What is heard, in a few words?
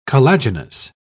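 "collagenous", British English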